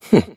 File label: happy; davion; dota; dragon knight